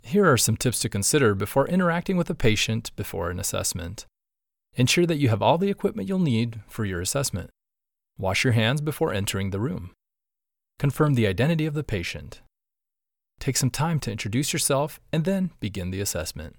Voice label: Healthcare Explainer; Short